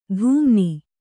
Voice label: "ಧೂಮ್ನಿ", kannada